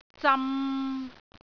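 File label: "zam3", Cantonese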